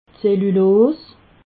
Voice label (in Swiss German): "zellulos", alsace